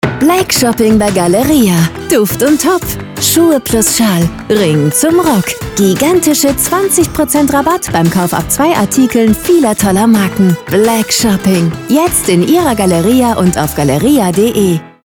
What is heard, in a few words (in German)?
Werbung; Galeria Black Shopping